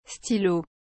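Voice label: "stylo", French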